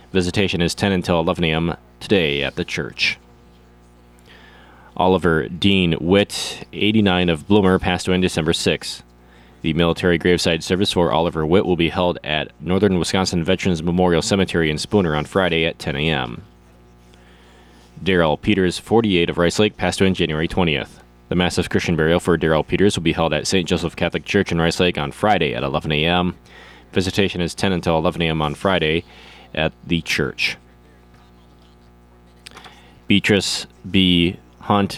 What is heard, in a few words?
Funeral Announcements; Tuesday